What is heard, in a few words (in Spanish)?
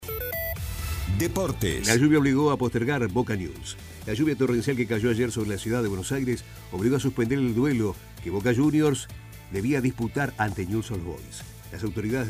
informativo; suspenden partido